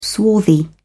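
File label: "swarthy", British English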